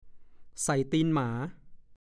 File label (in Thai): "ใส่ตีนหมา", ภาษาโคราช; วิ่งเต็มที่